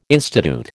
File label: "institute", American